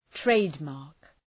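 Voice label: "trademark", English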